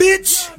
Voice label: "bitch", expletives

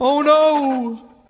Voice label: "oh no", male; ooh ahh

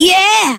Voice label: "yeah" child

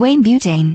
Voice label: female, voice drops

